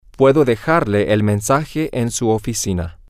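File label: I can leave the message at her office, spanish, male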